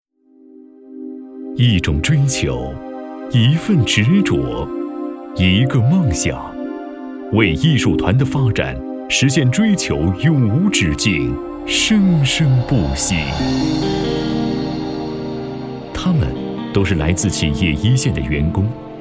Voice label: 专题 讲述磁性稳重

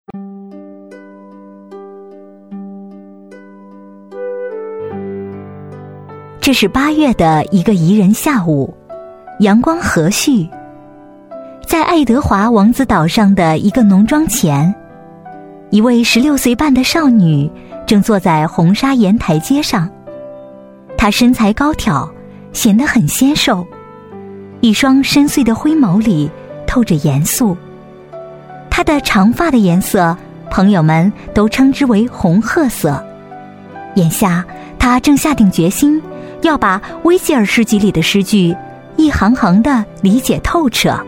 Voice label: 故事类

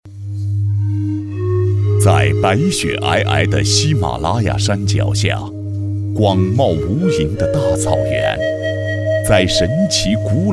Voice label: 发布会 男; 文库发布会; 政府专题

